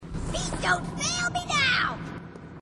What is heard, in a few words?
grunt; h2dialogue; grunt crazy